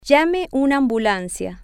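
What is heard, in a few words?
call an ambulance, Spanish, female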